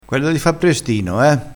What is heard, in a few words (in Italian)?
"prestino", crespinese